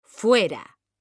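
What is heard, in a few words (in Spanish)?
"fuera", Spanish, female; mekanta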